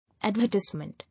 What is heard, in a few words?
"Advertisement", English